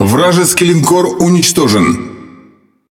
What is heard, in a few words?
Speech Destroy Enemy Battleship, RU; World Of Warships